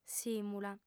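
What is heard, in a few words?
"sìmula", female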